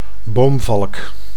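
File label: "boomvalk", Dutch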